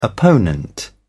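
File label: "opponent", British English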